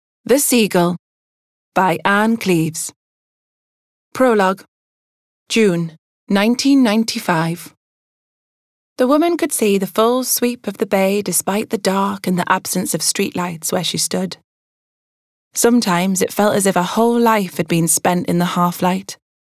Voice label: audiobook, Geordie, Reassuring, Down to Earth, Relatable